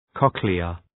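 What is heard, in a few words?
"cochlea", English; {‘kɒklıə}; Ουσιαστικό; κοχλίας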